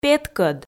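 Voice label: "петкӧд", Komi, female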